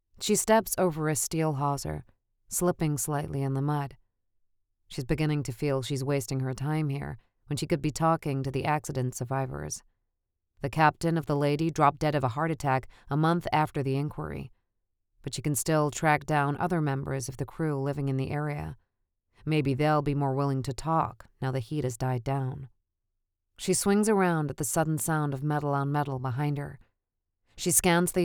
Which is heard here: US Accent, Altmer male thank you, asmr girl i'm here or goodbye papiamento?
US Accent